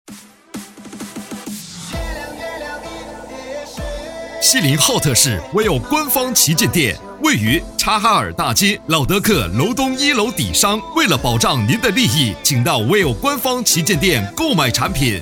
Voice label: 促销

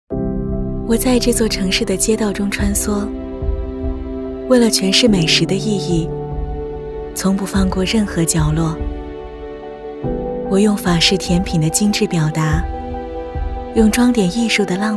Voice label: 中文宣传美食街宣传片

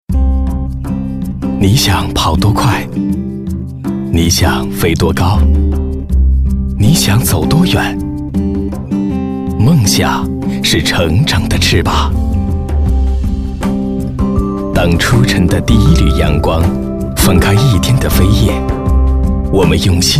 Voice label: 广告 银行; 交通银行

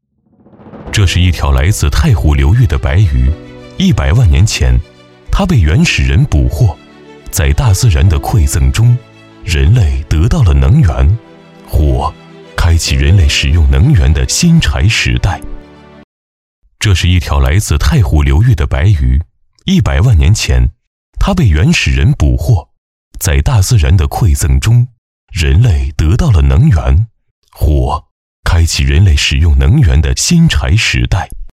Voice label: 科技感; 白鱼

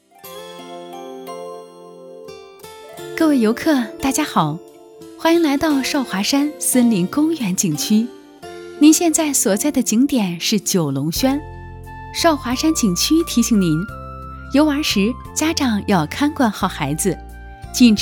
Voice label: 广播提示温柔甜美彩铃提醒介绍

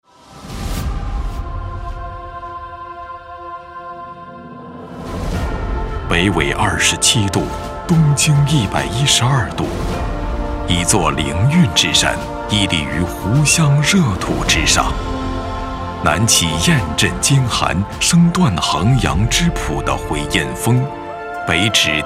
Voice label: 旅游 宣传片 低沉 老练 磅礴 大气 浑厚; 天下南岳; 景区风景

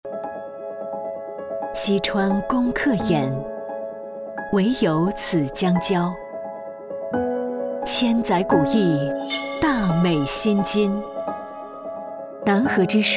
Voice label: 旁白大气抒情; 南河印象

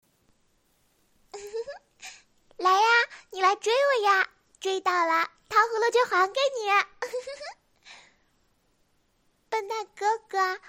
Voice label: 萝莉音

